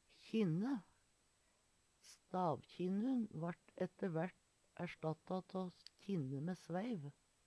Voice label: "kjinne", Numedalsmål

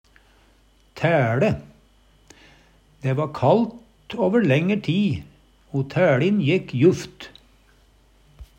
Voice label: tæLe, Numedalsmål; Substantiv hankjønn; Vêr og føre; Dæ va kalt over lenger ti', o tæLin jekk juft.

